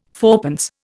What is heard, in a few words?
"fourpence", Indian